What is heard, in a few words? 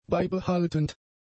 "beibehaltend", German; adjectives